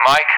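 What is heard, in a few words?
"Mike", English; Alphabet; DCS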